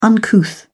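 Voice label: "uncouth", British English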